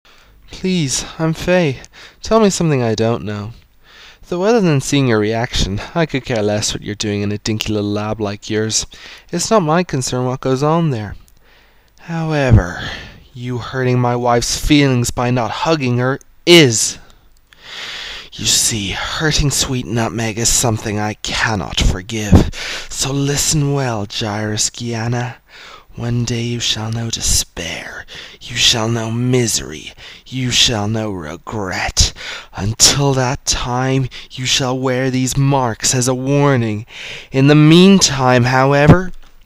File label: Voice Work; Albanion